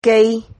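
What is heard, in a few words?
"quey", Spanish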